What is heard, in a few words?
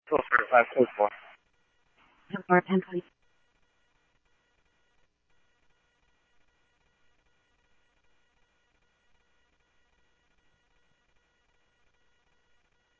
Police, scanner audio trunk; H-Scottsdale